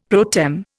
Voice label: "pro tem", Indian; Dictionary

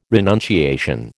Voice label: "renunciation", American

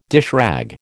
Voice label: "dishrag", American

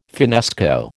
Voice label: "finnesko", American

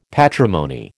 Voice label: "patrimony", American

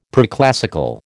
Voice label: "preclassical", American

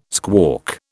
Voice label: "squawk", British

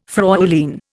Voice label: "FrÄulein", Indian

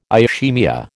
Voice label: "ischaemia", American

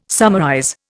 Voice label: "summarize", Indian

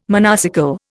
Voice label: "monocycle", Indian

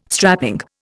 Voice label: "strapping", Indian

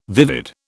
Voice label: "vivid", British

